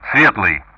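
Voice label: Speech Sound Russian; Common Player Callsign Light; DCS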